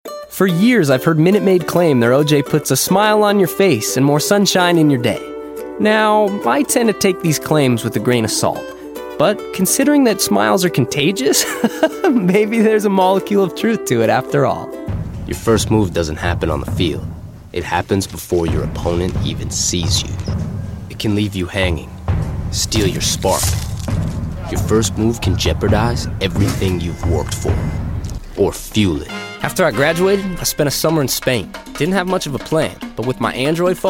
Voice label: Voice Talent Commercial